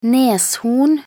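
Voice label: "neshorn", Norwegian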